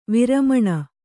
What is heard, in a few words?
"ವರಮಾನ", Kannada